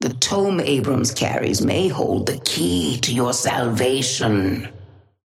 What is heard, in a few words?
Patron female ally ghost; Sapphire Flame voice line - The tome Abrams carries may hold the key to your salvation.